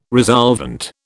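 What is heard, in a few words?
"resolvent", British English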